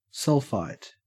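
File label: "sulphite", Australian English; pronunciations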